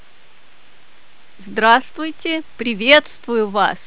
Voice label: russian